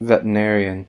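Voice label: "veterinarian", American English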